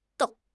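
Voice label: "dào", Chinese; HSK4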